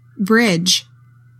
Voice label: "bridge", english; 3000 words

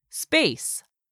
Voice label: "SPACE", english; 3000 words

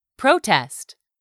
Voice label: "protest", English; 3000 words

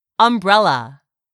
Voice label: "umbrella", English word; 3000 words

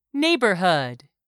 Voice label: "NEIGHBORHOOD", English; 3000 words

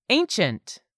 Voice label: "ANCIENT", english; 3000 words

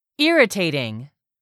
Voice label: "irritating", English; 3000 words

